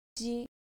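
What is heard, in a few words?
"jii", Japanese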